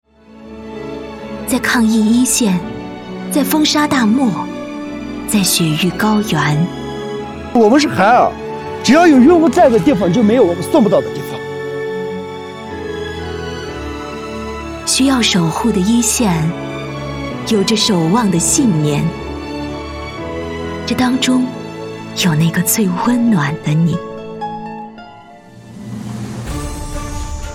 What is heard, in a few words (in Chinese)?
女 宣传片 大气重情走心企业地产 年会开场; 海尔年会开场